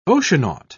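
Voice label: "oceanaut", British English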